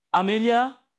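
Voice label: Voice Acting, Spanish, male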